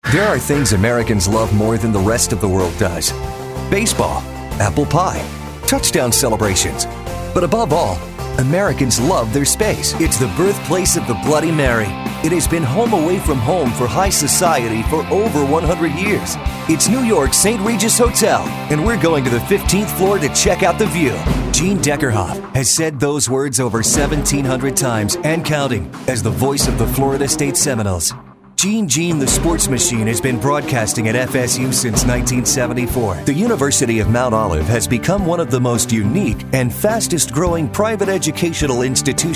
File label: Narration